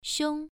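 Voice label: "xiong" Mandarin Chinese, first tone, pronunciation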